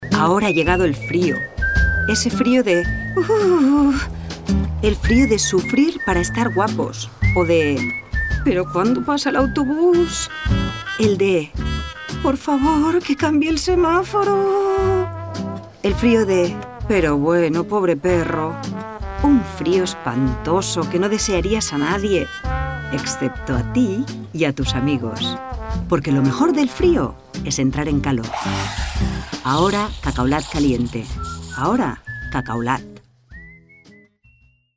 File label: female spanish drink comercial